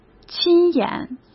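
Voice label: "亲眼", Mandarin Chinese; chinese-flashcards; hsk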